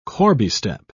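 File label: "corbiestep", British English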